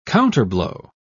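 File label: "counterblow", British English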